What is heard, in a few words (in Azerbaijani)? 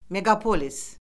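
"meqapolis", Azerbaijani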